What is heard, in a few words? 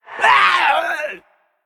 Male Goblin Undead Death